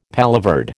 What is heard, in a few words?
"paloverde", American